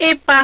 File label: igbo, vowels